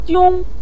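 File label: "jiong" neutral tone, Mandarin Chinese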